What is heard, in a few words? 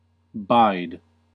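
"bide", American English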